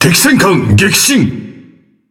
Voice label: Speech Destroy Enemy Battleship, JA; World Of Warships